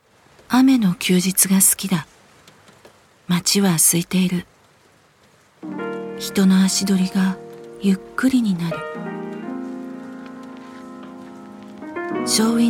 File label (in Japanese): ナチュラル、ウィスパー、穏やか; cm; マグノリアカフェ